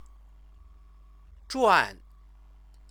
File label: "zhuan", Chinese